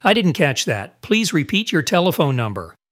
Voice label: telephone retry